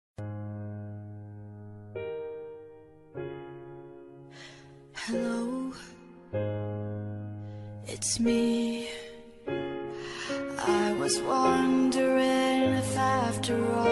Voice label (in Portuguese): Telemensagem Romântica Distante; rom distante fem